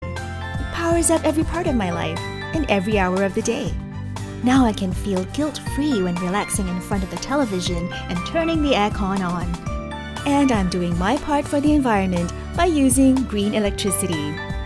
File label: Company Video VO, Female VO; Digital Ad Promo - Uplifting